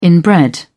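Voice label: "inbred", British English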